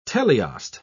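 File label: "teleost", British English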